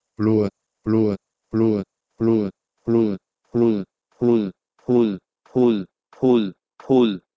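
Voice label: Proto-Indo-European bhleh3e to Bengali phul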